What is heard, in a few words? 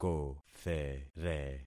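"kothere", concatenated syllables; Affixing experiment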